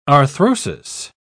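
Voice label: "arthrosis", English, British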